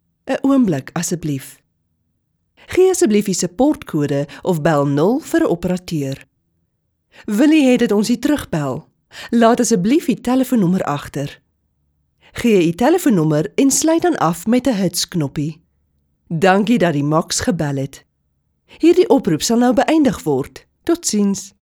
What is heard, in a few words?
afrikaans corporate videos